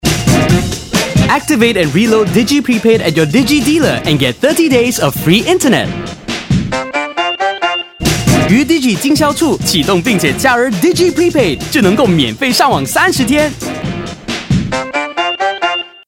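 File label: English Mandarin mono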